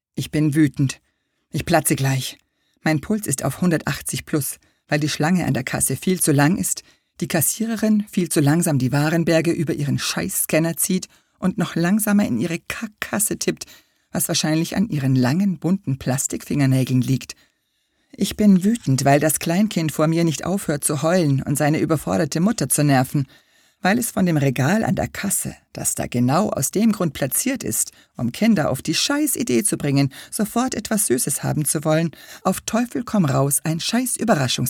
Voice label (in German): Hoerbuch; Menomorphosen